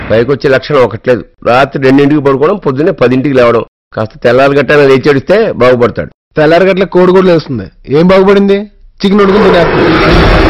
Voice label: Dialogue Ringtone; Julayi; Allu Arjun